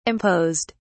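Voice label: "imposed", British English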